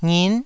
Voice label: "ngin9", Taiwanese